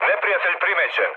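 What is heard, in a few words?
Serbian enemy detected mood med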